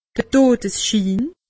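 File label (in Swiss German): "todesschin", Alsatian; medecine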